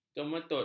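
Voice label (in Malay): "kemetot", Malay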